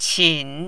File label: "qin3", mandarin